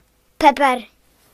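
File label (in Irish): "peppers", Irish; gcompris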